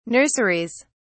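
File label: "nurseries", English, British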